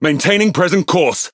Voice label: Speech Pilots Torpedo Airborne, EN GB; World Of Warships